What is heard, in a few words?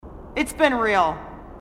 marine sassy